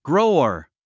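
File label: "grower", English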